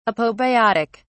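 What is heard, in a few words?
"apobiotic", British English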